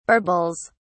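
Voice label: "barbells", British English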